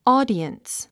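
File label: "audience", English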